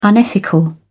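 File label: "unethical", British English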